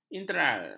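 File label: "integral", Malay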